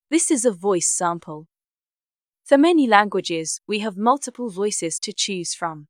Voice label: young Female

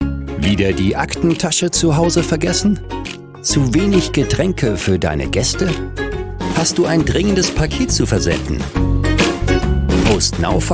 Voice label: Explainer Video

